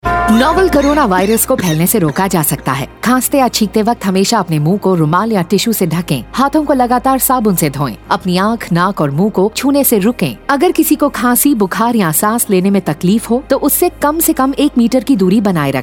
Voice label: Cough Radio Hindi, Radio spot; Rajasthan; on key behaviours COVID-19; SARS-CoV-2; UNICEF IEC eWarehouse

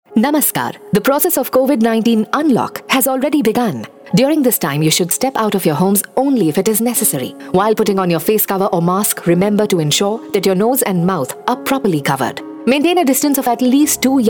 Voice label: English

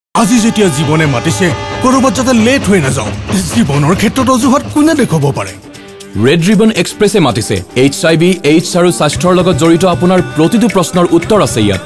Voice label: Radio Assamese

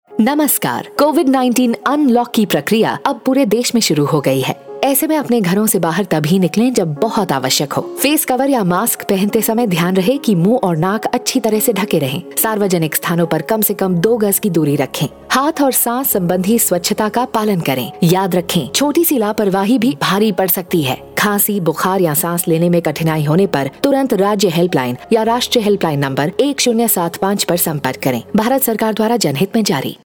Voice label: Hindi

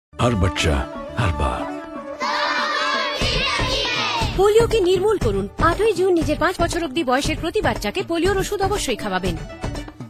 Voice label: radio spot, Bengali; polio

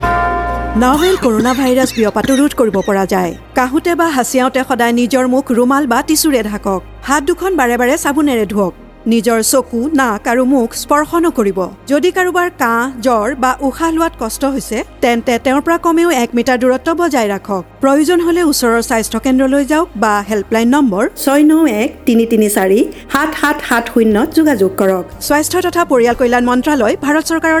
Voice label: Cough Radio Assamese

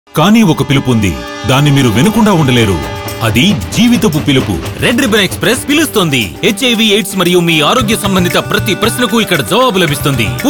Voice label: Radio Telugu

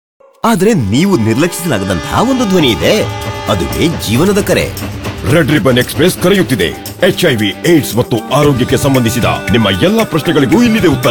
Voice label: Radio Kannada

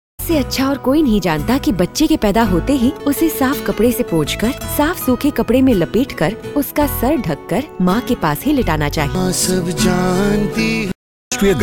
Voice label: hindi

